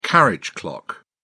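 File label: "carriage clock", English, British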